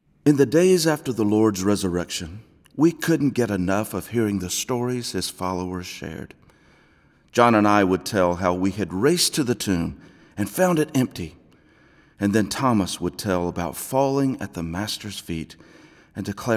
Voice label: Narration; listening cd easter